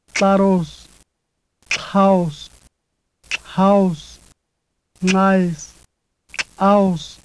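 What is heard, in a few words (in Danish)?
nama, lateral, clicks, alle ordene har højtone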